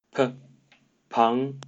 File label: Mandarin Chinese pronunciation p "滂"